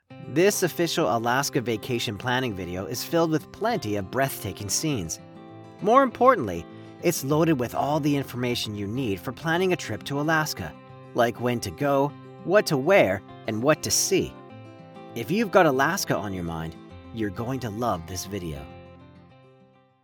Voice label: Ad w music; Alaska